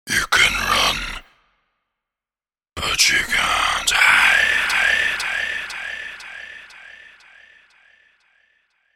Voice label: You Can't Hide Spoken, The words 'You can run but you can't hide' spoken in ominous deep; with delayed fade; Evil Voice